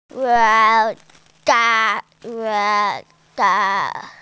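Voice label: "yu3 san3", Mandarin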